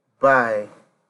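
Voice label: "buy", Nigerian Pidgin, male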